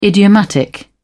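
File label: "idiomatic", English, British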